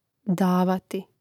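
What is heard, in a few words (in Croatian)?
"davati", Hrvatski; mreznik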